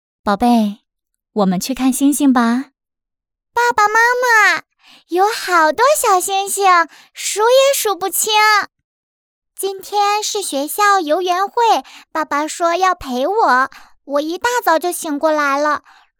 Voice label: 女 角色 年轻女声 男童 女童

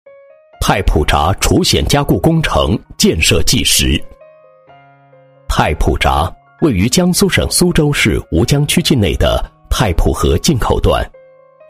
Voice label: 男 课件 工程类叙述, 国语男声配音; PPT

